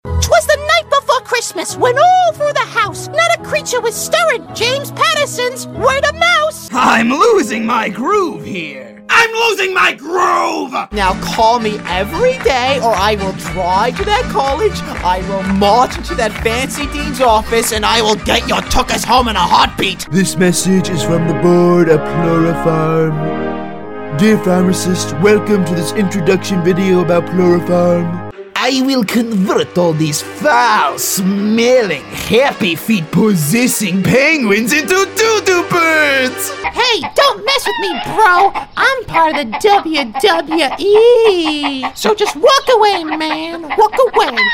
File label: Animation